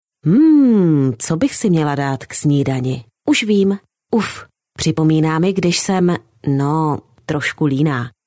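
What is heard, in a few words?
Czech, female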